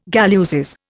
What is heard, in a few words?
"galluses", Indian